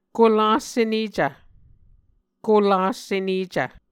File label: "kolas senecv", Muscogee